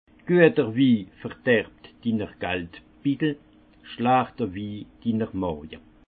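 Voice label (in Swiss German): "gueterwi", Alsace Haut Rhin; le bon vin abîme ta bourse le mauvais ton estomac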